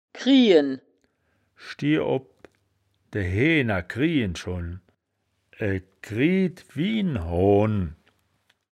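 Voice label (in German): "kriehen", wittlicher woerterbuch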